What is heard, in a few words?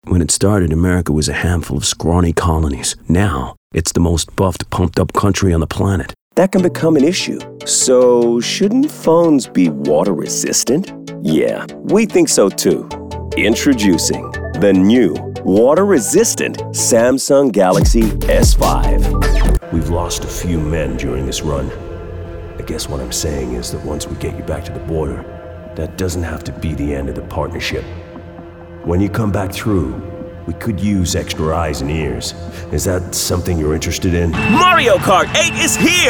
American VO